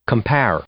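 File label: "compare", English; verb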